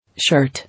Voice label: "Shirt", English